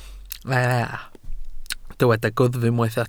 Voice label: "gwddf", Welsh